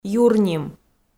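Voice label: "юрним", Komi, female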